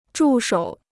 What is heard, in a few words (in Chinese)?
"助手", Chinese